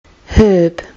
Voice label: "herb", kiwi english